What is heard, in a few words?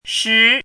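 "shi2", Mandarin Chinese syllable, pinyin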